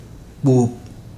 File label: "hardened", Ibibio